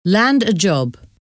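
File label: land a job, English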